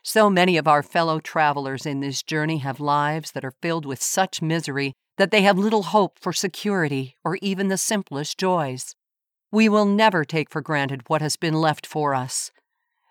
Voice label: English Canadian audiobooks